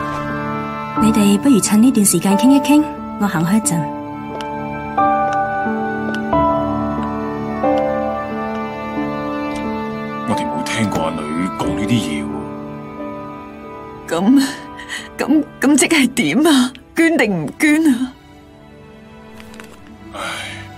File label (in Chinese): 粵語 動畫; 器官捐贈